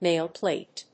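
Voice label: "nail plate", English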